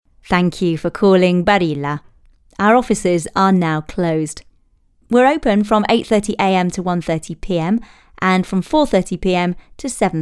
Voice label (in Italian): inglese Femminile